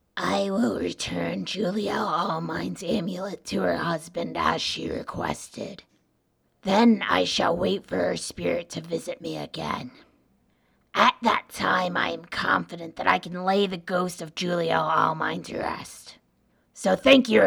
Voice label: argonian female older, voice auditions